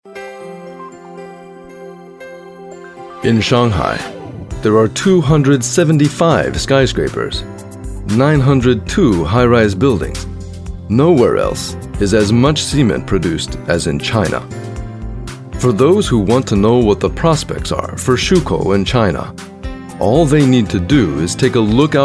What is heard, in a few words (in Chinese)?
外籍男英 外语 美式英语 讲述; 上海